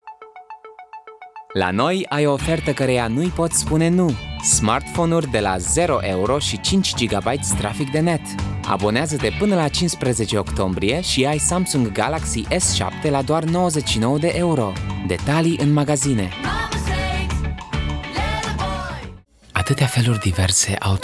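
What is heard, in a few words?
Romanian, general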